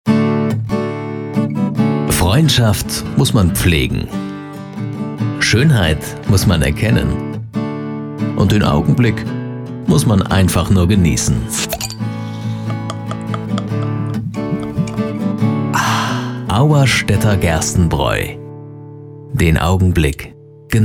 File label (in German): sprecher werbung; auerstaedter